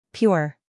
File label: "pure" en us female; everyone-can-use-english